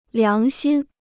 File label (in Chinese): "良心", Chinese